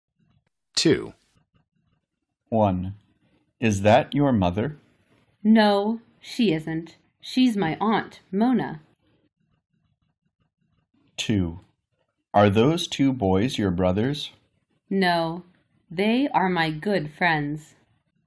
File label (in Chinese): 听力 对话; 初中课程; 七下课优3讲听力2; 7暑课优第3讲